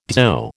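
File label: "dzho", American English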